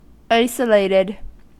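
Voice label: "isolated", US English; pronunciations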